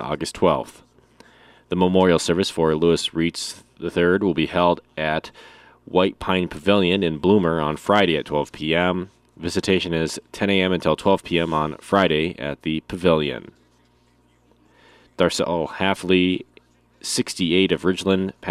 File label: Funeral Announcements